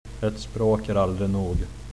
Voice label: one language, swedish

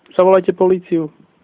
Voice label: police, slovak

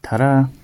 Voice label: goodbye, gwentian

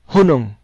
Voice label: stop, cebuano

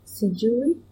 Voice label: i dont know swahili

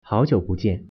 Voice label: "long time no see", Mandarin

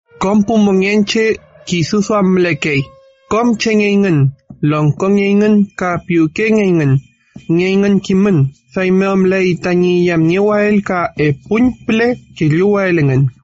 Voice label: Mapuche

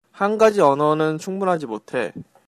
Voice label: one language, Korean

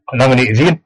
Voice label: long time no see, west flemish

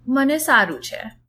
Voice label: fine, gujarati

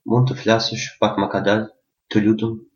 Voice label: slowly, albanian gheg

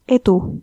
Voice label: good luck, estonian

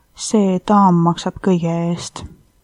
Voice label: pay, estonian

